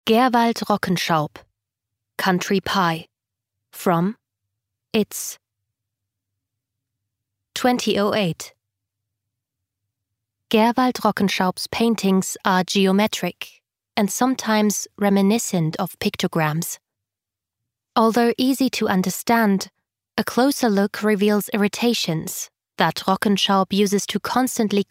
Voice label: Audioguide; Broken Music

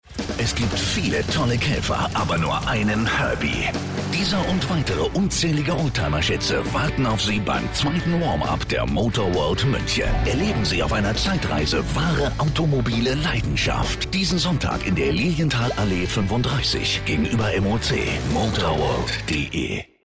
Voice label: Spot; Motorworld München; Zweites Warm Up

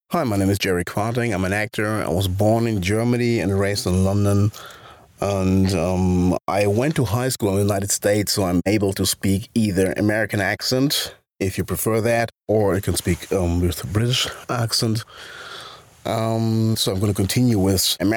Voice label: Vorstellung eng

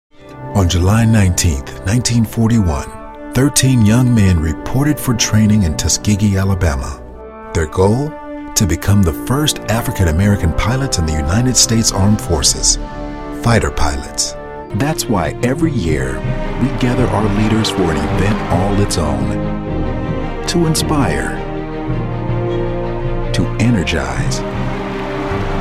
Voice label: NARRATION DOCUMENTARY STORYTELLER; LOFT VOICES

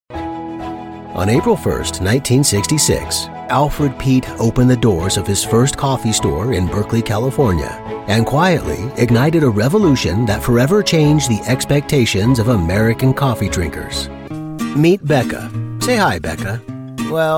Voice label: Explainer Videos

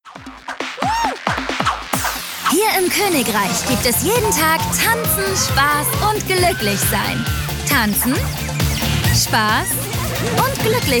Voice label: Station Voice; Cartoon Network

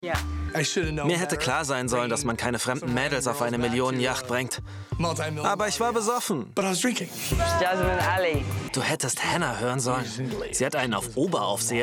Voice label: Voiceover; Below Deck

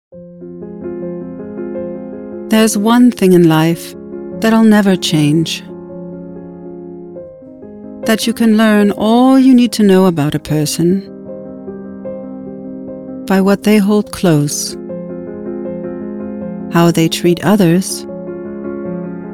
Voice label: Ad; Hands; LOFT VOICES

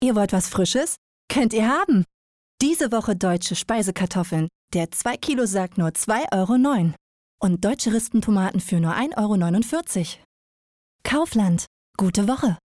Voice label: Voiceover only; Kaufland

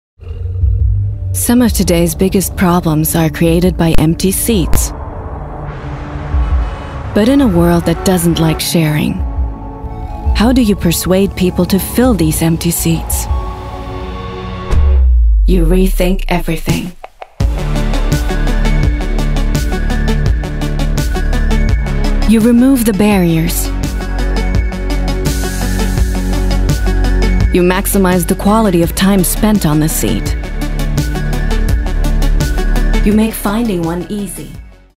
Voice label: US Imagefilm; VW Moia

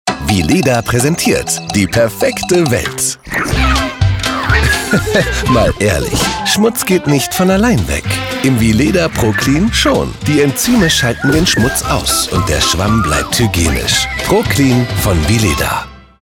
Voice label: Commercial Campaign; Vileda

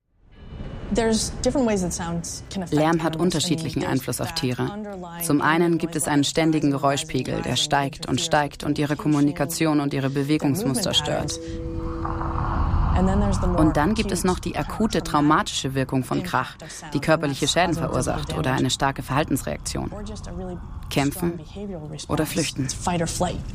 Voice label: Voice Over

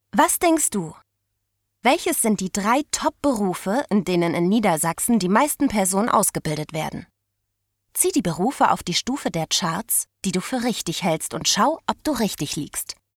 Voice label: E-Learning

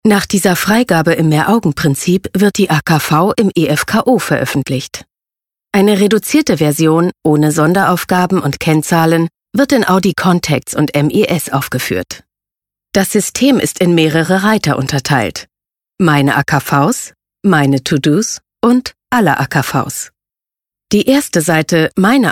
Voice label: Explainer

